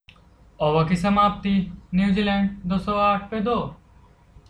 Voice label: hindi line